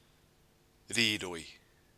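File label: "riroi", Maori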